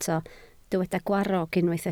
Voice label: "gwarrog", Welsh

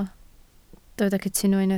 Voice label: "cytuno", Welsh

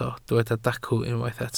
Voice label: "dacw", Welsh

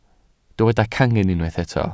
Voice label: "cangen", Welsh

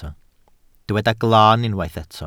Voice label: "glaan", welsh

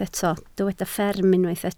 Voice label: "fferm", Welsh

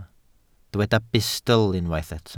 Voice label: "bustl", welsh

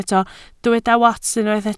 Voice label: "wats", Welsh

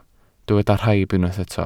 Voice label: "rhaib", welsh

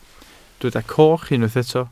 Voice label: "coch", Welsh

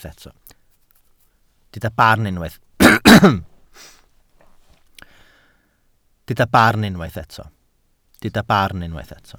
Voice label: "barn", welsh

